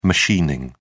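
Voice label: "machining", British English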